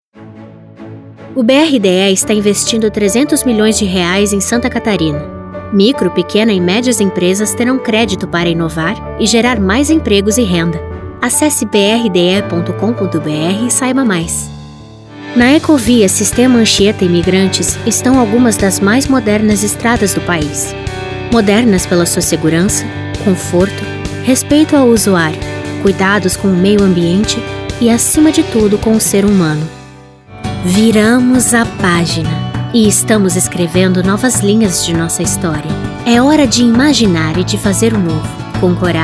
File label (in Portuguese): Institucional